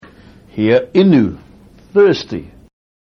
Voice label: "hiainu", Maori